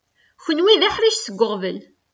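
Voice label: Kabyle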